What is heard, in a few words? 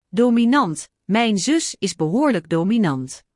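"dominant", Dutch; Spelling Gr6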